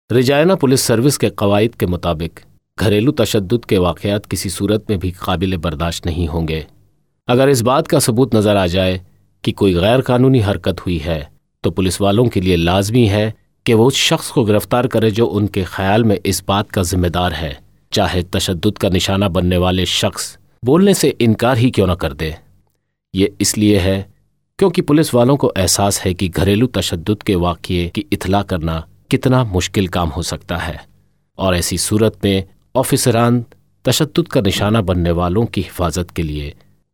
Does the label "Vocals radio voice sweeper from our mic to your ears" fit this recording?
No